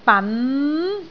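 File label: "ban2", Cantonese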